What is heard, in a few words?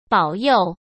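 "保佑", Mandarin Chinese; chinese-flashcards; hsk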